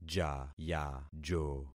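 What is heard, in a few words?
"jayajo", concatenated syllables; Affixing experiment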